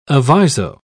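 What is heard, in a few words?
"aviso", British English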